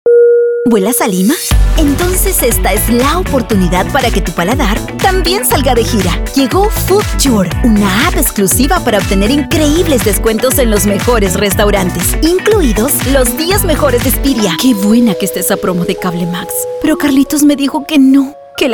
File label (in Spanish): Comercial locutora peruana